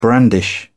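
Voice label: "brandish", British English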